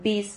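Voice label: "biz", Spanish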